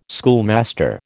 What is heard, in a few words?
"schoolmaster", American